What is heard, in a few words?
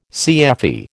"CFE", American